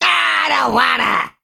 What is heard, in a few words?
Male Imp Attack